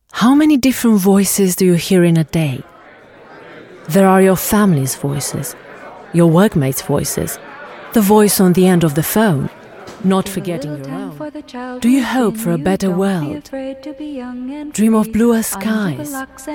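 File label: Romanian, English accent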